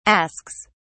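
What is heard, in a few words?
"asks", English, British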